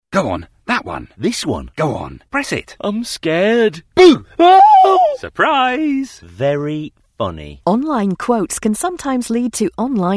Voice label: Radio Advert; Fieldings Porter